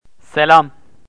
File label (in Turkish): "selam", turkish, greetings